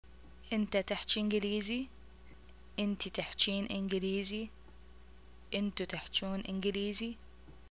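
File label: do you speak English, arabic, Iraqi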